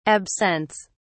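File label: "absents", British English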